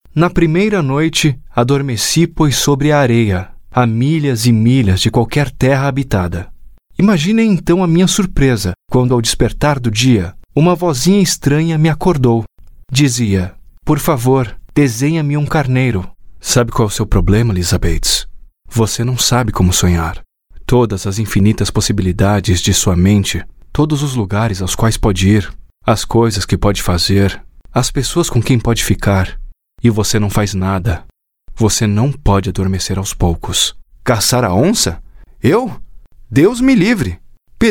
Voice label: AUDIOBOOK